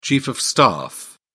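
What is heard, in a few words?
"chief of staff", British English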